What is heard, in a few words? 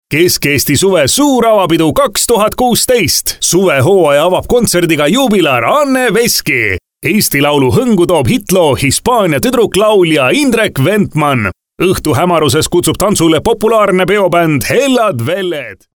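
Estonian, male, commercial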